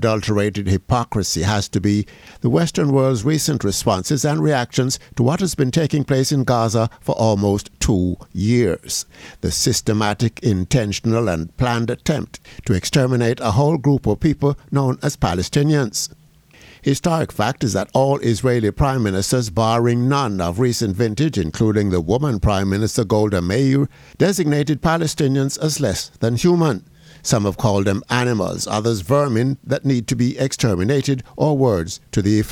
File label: COMMENTARY